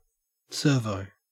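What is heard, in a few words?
"servo", Australian English; pronunciations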